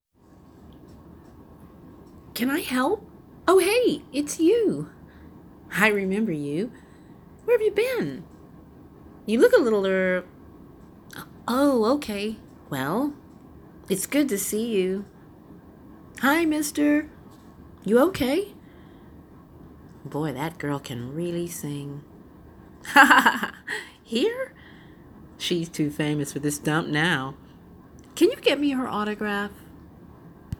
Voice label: Video Game Female American Characters Video Game Female Jazz Singer